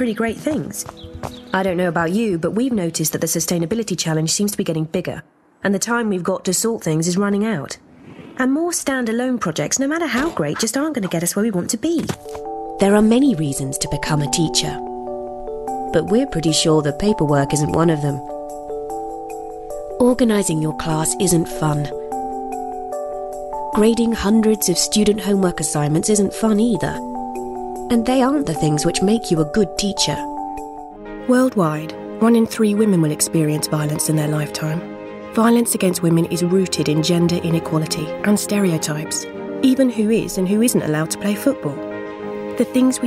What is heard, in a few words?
Corporate